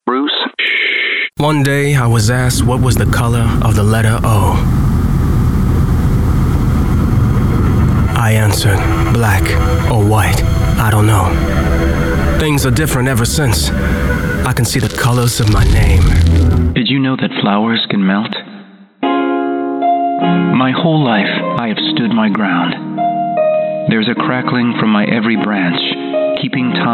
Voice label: poetic promo

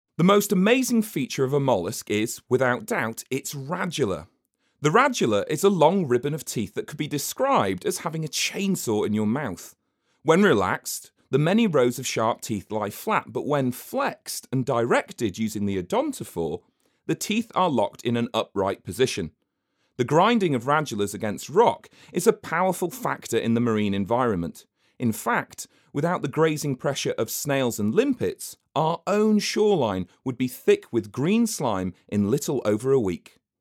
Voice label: narration

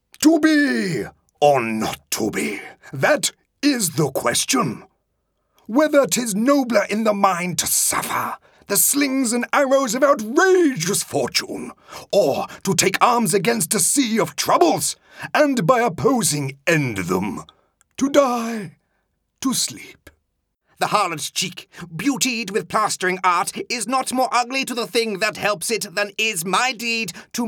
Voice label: character reel

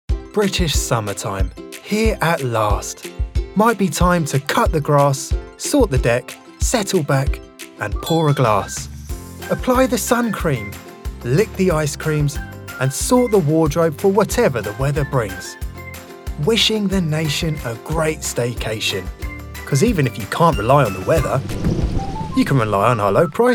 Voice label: commercial reel

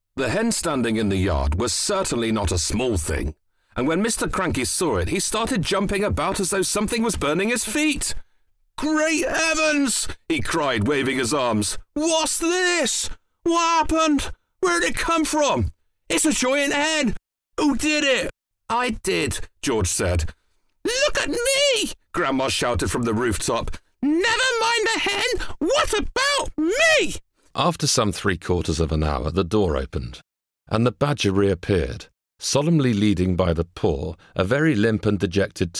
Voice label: narration reel

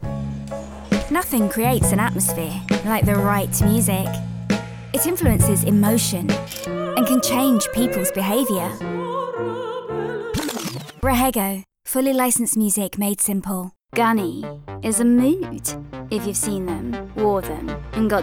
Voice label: commercial

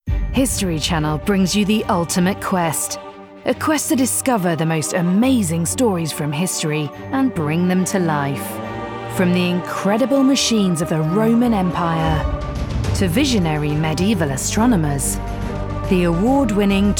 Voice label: promos

